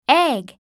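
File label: "egg", English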